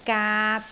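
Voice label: "gaat3", Cantonese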